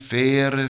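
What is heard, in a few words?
"feire" Low German, QUATTUOR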